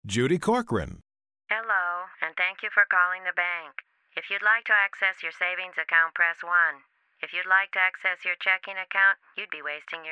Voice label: FLAT